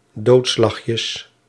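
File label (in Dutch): "doodslagjes", Dutch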